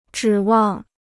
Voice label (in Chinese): "指望", Chinese